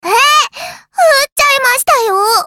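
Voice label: character voice Attack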